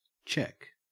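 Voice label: "cheque", Australian English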